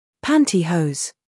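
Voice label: "scandal", American; pantyhose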